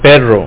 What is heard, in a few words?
dog, Spanish; Java Developer's Companion; WordMatch